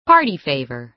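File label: "party favor", American English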